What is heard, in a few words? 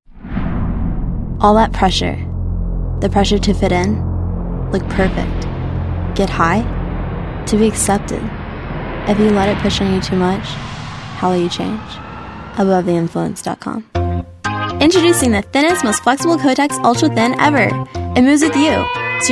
Eng Kid